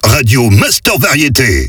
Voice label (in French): Accap speed, Voix off; Radio Master Variétés